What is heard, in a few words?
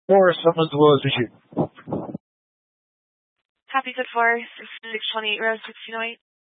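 Incident Tactical, scanner audio recording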